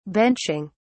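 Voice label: "benching", British English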